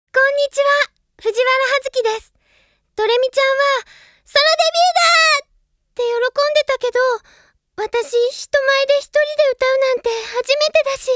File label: Opening Narration; Ojamajo Doremi; Hazuki Hen; Ashita No Nadja